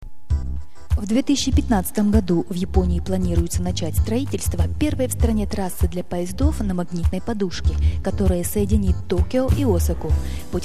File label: news